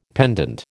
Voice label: "pendant", American